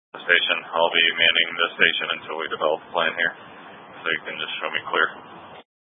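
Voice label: Incident Tactical 1, Scanner audio recording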